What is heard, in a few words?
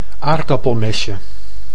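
"aardappelmesje", Dutch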